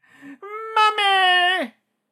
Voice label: battle chatter